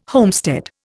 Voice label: "homestead", Indian

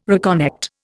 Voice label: "reconnect", Indian

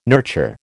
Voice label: "nurture", American

